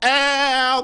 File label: ow sarcastic male; ooh ahh; ow ouch